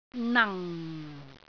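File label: "nang4", Cantonese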